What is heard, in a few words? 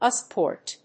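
"USB port", English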